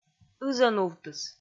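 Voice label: "ызӧнувтыс", Komi, female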